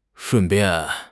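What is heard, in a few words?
"shun4 bian4", Mandarin Chinese; HSK; HSK4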